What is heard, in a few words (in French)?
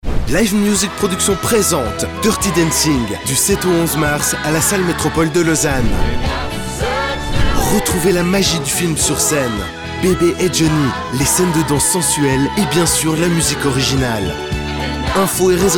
Spot TV; Dirty Dancing